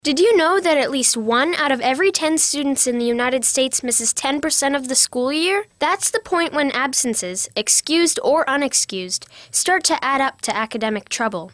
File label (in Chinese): 童声女 叙述